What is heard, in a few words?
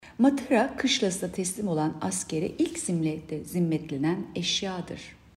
turkce